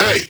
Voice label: Vocal Adlib Male "Ay"; bass house